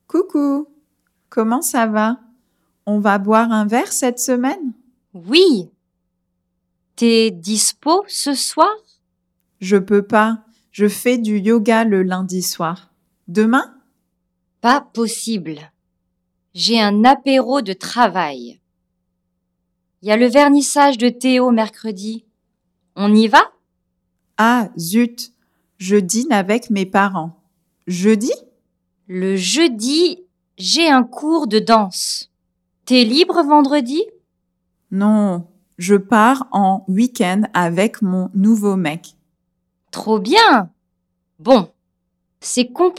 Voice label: DIALOGUE SLOW, French; COUCOU; LEVEL 0